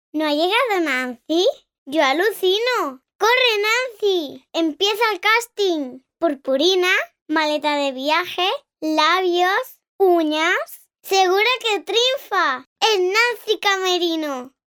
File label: locutora infantil, Spanish kid voiceover, child voice over: 6 years old